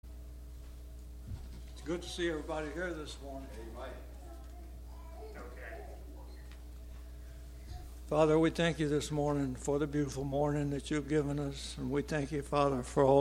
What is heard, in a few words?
Broadcast Prayer